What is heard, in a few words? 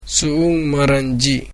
Sora family